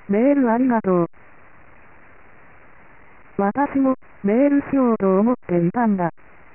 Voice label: s speech female